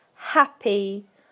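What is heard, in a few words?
"happy", British English; PictureDictionary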